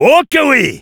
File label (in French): Engineer yes, fr